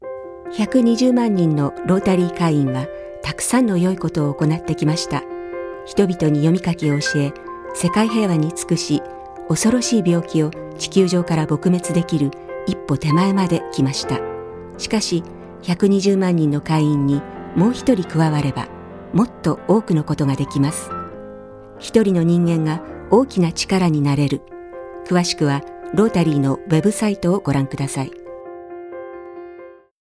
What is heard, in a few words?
radio; public information